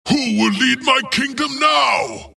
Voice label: wraith death; dota; skeleton king

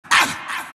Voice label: monkey crown pain; dota; monkey king

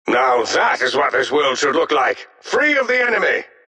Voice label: announcer victory; dlc defensegrid

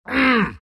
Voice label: tusk pain

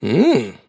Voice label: happy; davion; dota; dragon knight

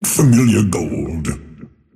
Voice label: lasthit pain; dota; earthshaker; arcana

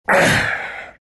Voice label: drag pain; dota

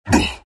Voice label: pain; dota; earth spirit

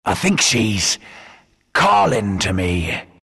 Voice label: announcer pick naga siren, pirate; dlc workshop; capn

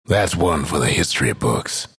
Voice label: announcer victory; dlc bastion

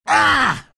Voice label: lion pain; dota

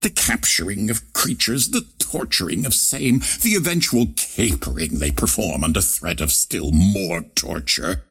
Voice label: monologue; ringmaster

